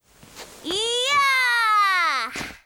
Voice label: FEMALE Emotion